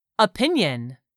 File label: "opinion", English; 3000 words